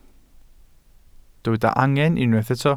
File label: "angen", Welsh